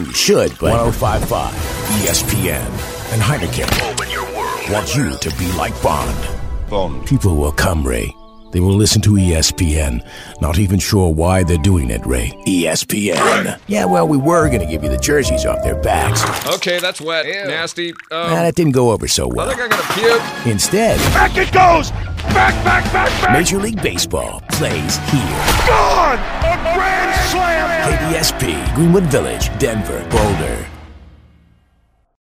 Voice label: radio sports, radio imaging

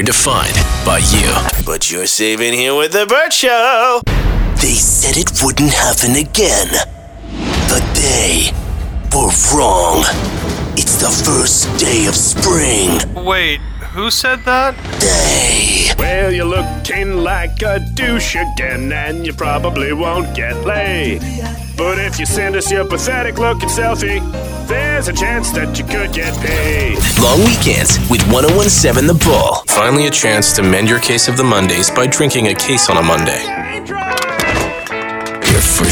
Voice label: radio imaging, multi format

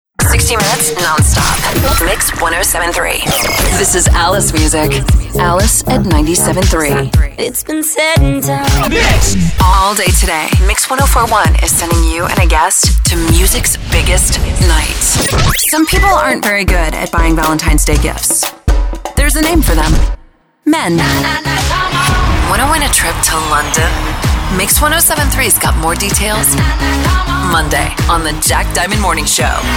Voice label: radio hot ac, radio imaging

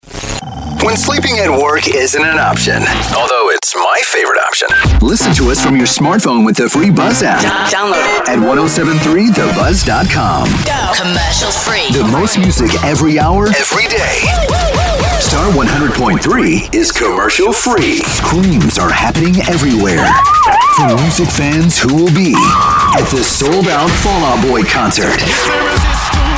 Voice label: radio hot ac; radio imaging

